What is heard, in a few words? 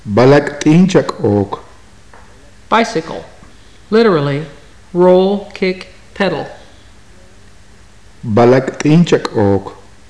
bicycle, maya